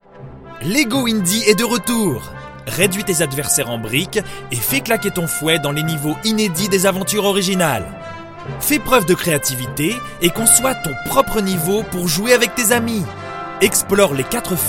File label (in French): pub medium enthousiaste; voix off masculine; voix off masculine naturelle, posée, souriante